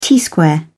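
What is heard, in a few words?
"t-square", British English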